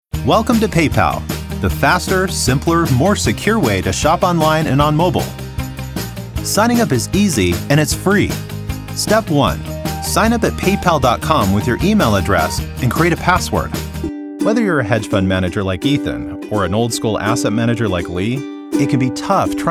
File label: Explainer